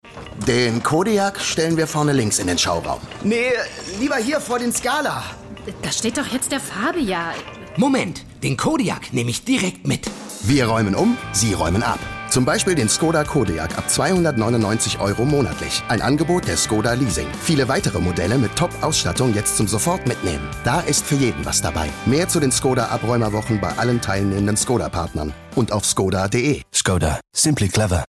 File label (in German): Skoda Radiowerbung